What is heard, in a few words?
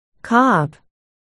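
"cob", spoken word, articulation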